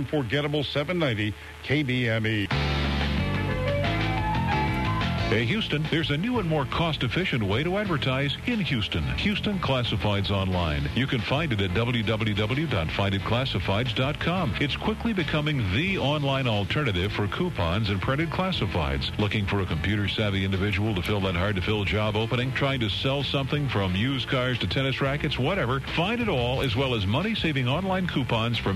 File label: radio spot